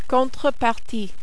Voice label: "contrepartie", French